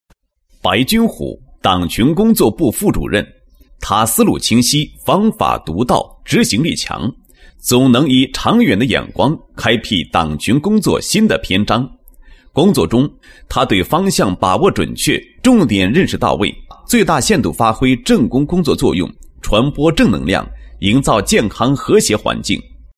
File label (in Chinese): 颁奖, 国语男声配音; 高音经济型; 电力优秀人物